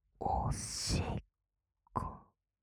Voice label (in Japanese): 【左】おしっこ, ASMR; 淫語